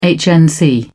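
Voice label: "hnc", British English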